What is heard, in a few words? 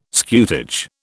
"scutage", British